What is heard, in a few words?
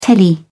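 "telly", British English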